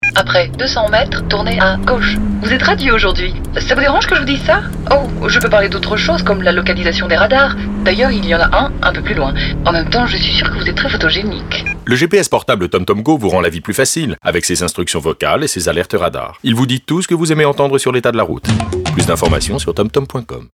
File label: French commercial; Tom Tom